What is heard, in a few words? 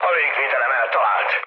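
Hungarian damaged aa mood med, speech; War Thunder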